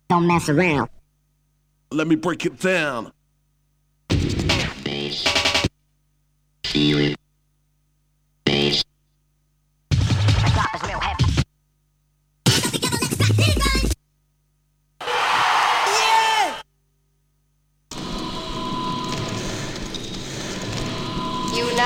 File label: Vocal Drops; Kniteforce; 1000 Samples Volume 1